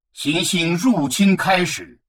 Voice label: advisor notification invasion progress, chinese; Stellaris

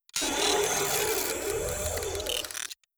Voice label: robot fungoid greetings; Stellaris; synthetic dawn

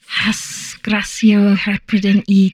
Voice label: humanoid female; Stellaris

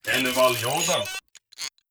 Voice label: robot human male greetings

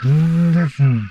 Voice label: humanoid portrait male; Stellaris; humanoids

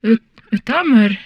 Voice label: humanoid female; Stellaris

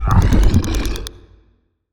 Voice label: portrait synthetic; Stellaris; machineage

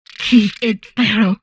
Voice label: humanoid female; Stellaris; humanoids

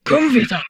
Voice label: humanoid portrait female; Stellaris; humanoids

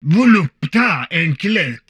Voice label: humanoid portrait male; Stellaris; humanoids

